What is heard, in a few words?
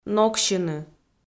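"ноксьыны", Komi, female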